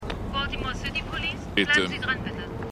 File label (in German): Telefonstimme Polizei; The Wire